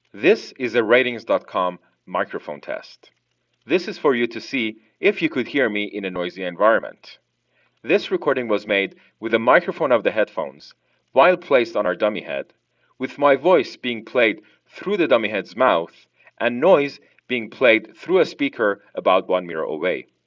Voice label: internal mic